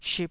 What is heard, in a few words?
"ship2", taiwanese Syllables